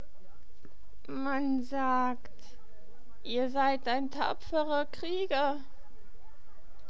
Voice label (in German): Krieger alt